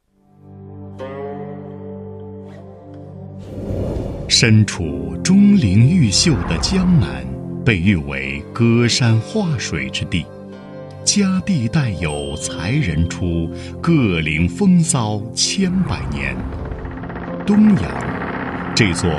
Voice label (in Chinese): 男 专题 红木家具 低沉磁性, 国语男声配音; 东阳